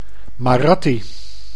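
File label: "Marathi", Dutch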